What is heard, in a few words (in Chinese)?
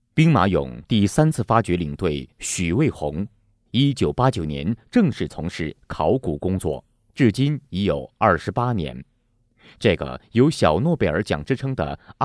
男 纪录片-考古（人文地理）